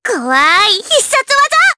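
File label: voice Skill, voices heroes, Japanese; kingsraid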